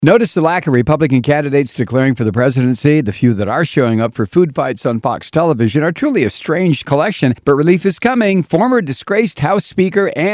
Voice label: promo